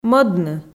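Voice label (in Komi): "мӧдны", Komi, female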